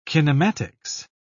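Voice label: "kinematics", British English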